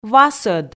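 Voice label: "васӧд", Komi, female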